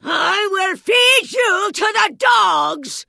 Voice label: Vrykul Female Magic User Pissed